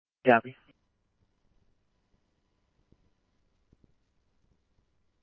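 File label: scanner, Phoenix Police Department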